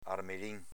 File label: "armelin", dialetto veneto; armelėn s.m. albicocca